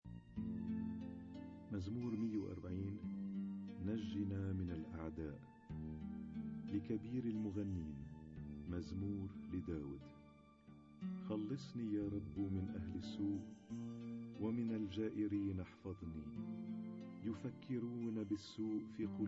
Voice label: Arabic Psalm Zaboor